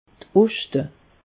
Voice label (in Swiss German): "oschte", alsace; meteo